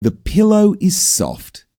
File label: soft sentence